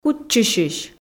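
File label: "кутчысьысь", Komi, female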